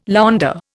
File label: "launder", Indian